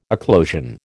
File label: "eclosion", American